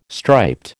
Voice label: "striped", American